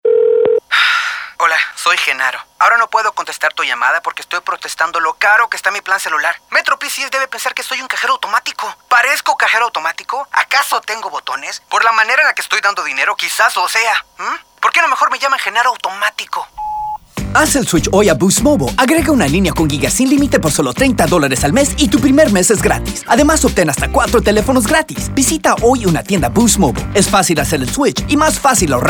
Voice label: Spanish Radio; Boost Mobile